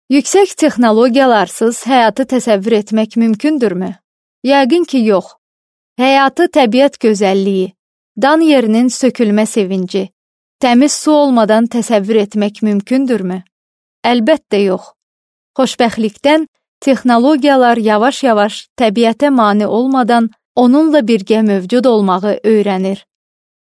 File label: azerbaijan female